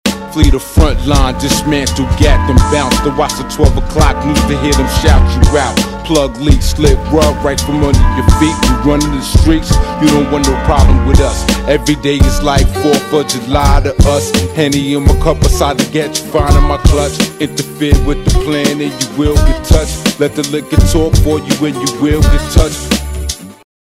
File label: slow rap